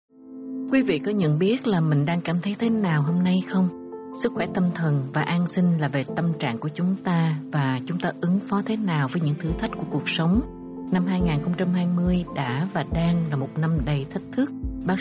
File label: Mental Health Awareness - Vietnamese